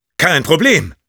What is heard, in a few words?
positive vocalization; Sniper